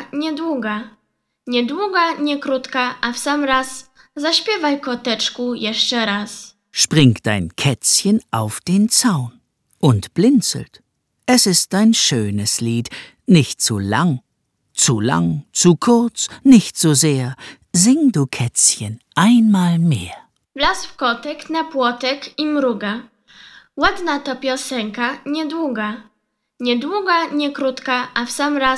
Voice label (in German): Polnisch, Kinderverse